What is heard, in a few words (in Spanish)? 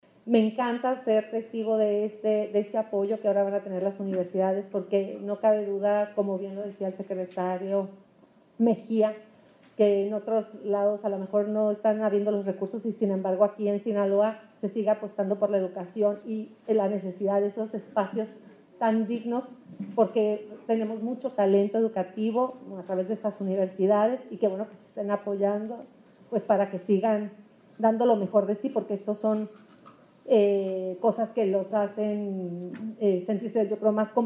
MENSAJE SRA.; CONVENIO CON RECTORES